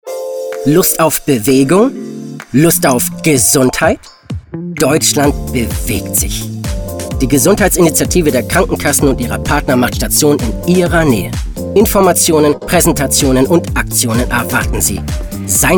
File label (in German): Werbung; dynamisch; Krankenkasse